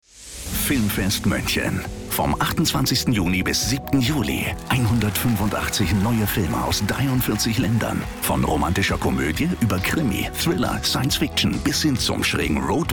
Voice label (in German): Spot; Filmfest München; LOFT VOICES